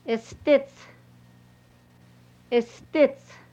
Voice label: string, hupa